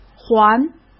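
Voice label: "还", Mandarin Chinese; hsk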